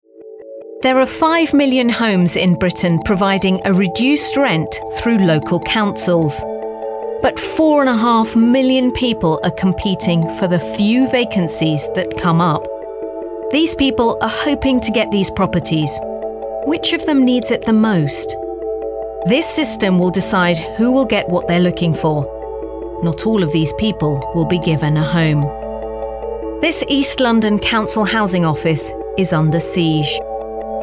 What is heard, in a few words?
Narration